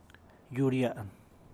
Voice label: "yuu riaan", San Mateo Macuilxochitl Zapotec, arable land; tierra cultivable